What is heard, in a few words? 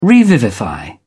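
"revivify", British English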